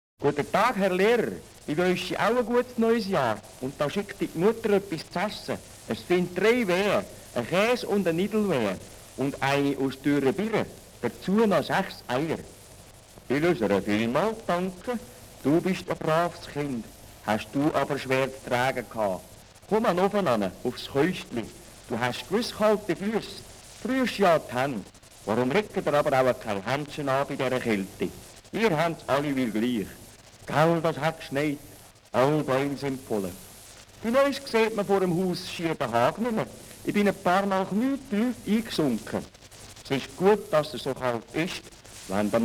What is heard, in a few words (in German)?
Zürcher Dialekt